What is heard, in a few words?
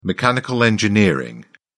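"mechanical engineering", British English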